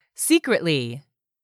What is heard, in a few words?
"SECRETLY", english; 3000 words